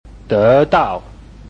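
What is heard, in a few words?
"de dao", Chinese, tones 2 and 4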